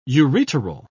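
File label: "ureteral", British English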